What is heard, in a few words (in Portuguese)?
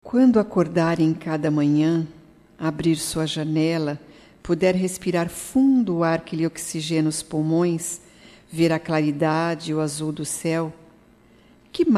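OTIMISMO feminino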